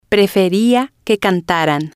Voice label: "preferia Que Cantaran", spanish

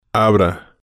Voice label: "abra", spanish

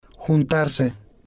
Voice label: "juntarse", Spanish

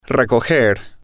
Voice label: "recoger", Spanish

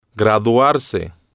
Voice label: "graduarse", Spanish

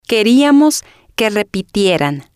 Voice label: "queriamos Que Repitieran", spanish

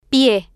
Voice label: "pie", Spanish

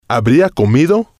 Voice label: "habría comido", Spanish

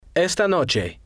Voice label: "esta noche", spanish

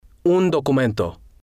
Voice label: un documento, Spanish

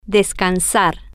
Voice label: "ds-can-sar", Spanish

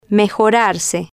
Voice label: "mejorarse", Spanish

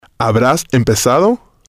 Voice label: "habras Empezado", spanish

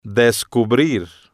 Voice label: "descubrir", Spanish

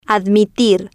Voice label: "admitir", Spanish

